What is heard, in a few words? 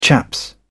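"chaps", British English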